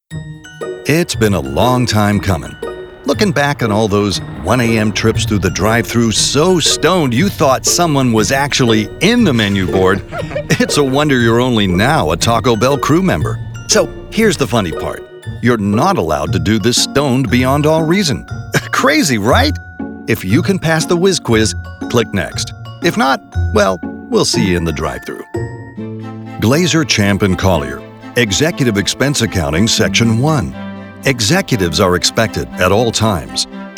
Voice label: E-Learning